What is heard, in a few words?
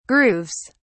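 "grooves", British English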